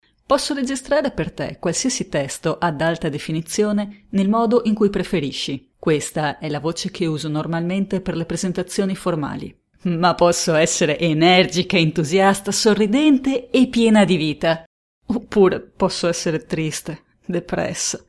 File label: Italian